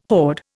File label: "horde", Indian